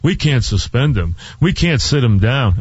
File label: MOCK Voice; WFAN